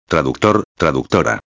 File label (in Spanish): "traductor a", espanhol